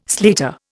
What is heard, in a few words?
"slater", Indian